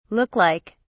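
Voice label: "look like", British English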